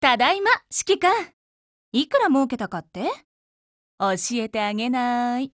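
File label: OPERATION OVER Japanese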